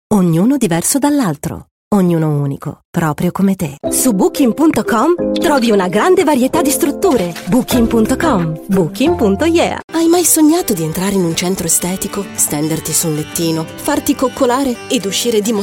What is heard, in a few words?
Commercial